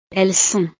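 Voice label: "elles sont", French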